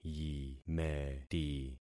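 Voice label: "yimeti", concatenated syllables; Affixing experiment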